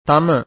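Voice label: "tama", Pali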